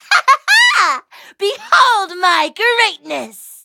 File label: level up, en; cookie run